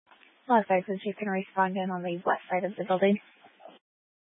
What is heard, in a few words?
Fire Department Dispatch; Grand Junction